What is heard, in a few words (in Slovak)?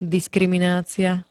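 "diskriminácia", slovenské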